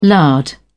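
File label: "lard", British English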